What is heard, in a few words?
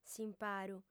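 "s'imparu", female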